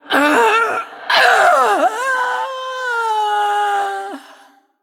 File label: Female Ghost DEATH; en